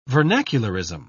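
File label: "vernacularism", British English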